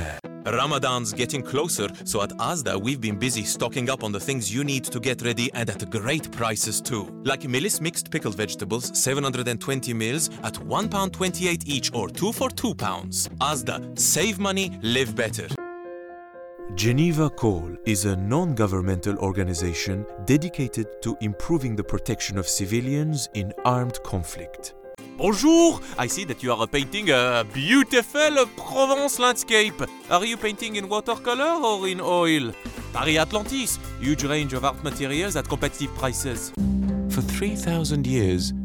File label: male english with french and turkish accents compilation